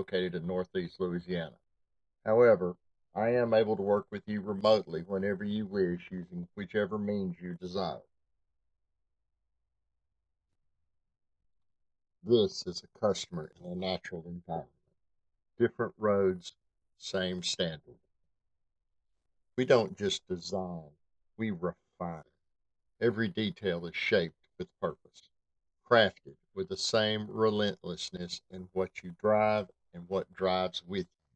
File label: Voiceover; BMW Video Shoot